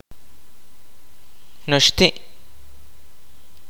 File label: kaqchikel; cactus